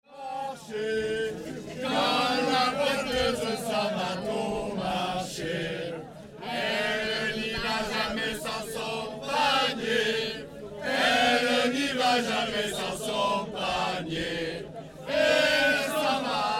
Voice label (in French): Tram voix paillarde